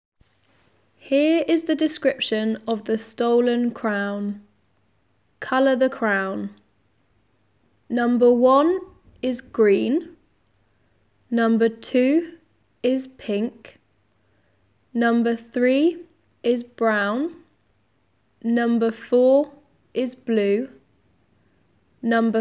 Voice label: defi anglais; crown